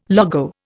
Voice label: "logo", Indian